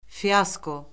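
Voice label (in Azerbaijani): "fiasko", Azerbaijani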